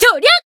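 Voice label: attack, Japanese; kikyou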